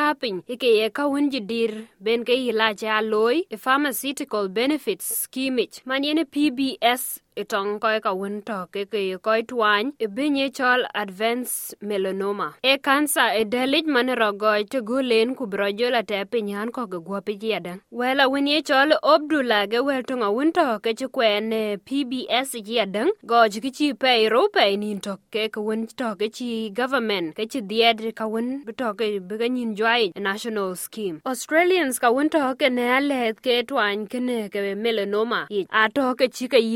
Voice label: dinka, podcast; Skin Cancer Campaign; Pharmaceutical Benefits Scheme (P-B-S); SBS Dinka